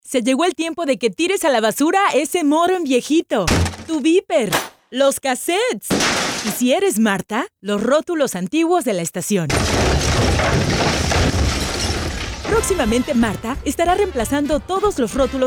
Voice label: Spanish Radio